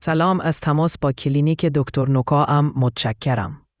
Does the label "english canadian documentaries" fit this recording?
No